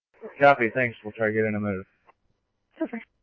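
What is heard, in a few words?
scanner, Phoenix Police Department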